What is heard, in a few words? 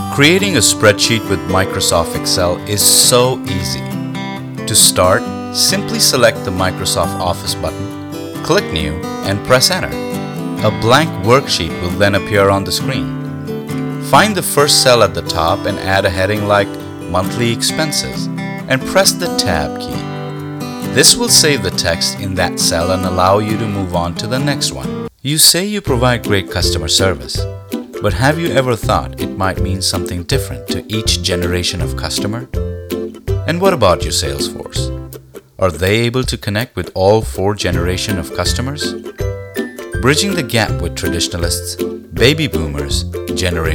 Explainer